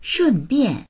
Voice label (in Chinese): "shun4bian4", voices; 顺便; ついでに